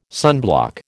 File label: "sunblock", American